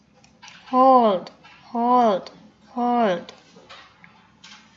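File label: "hold", spoken word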